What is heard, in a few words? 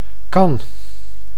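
"kan", Dutch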